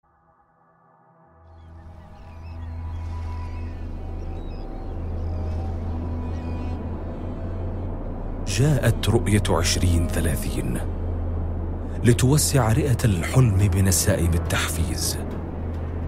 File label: arabic commercial; Saudi Arabien; Radio- und Fernsehmoderator